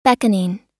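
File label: "beckoning", American English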